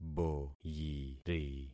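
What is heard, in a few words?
"boyiri", concatenated syllables; Affixing experiment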